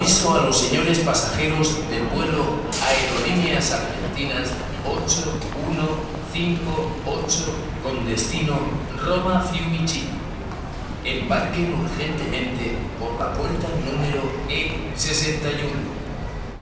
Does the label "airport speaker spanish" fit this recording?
Yes